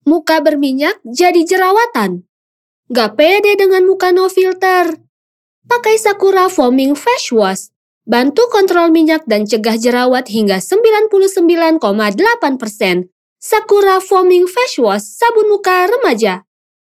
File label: CASUAL INDONESIA